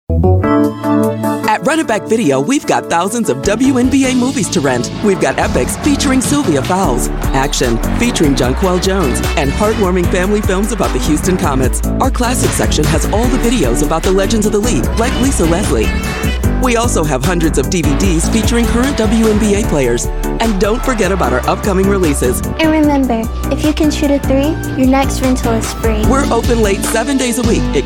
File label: promo